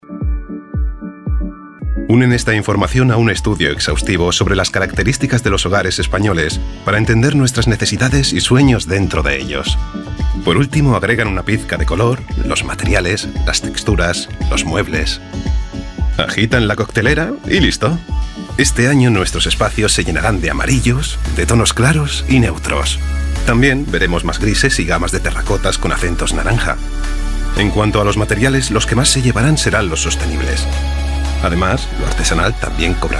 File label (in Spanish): Masculino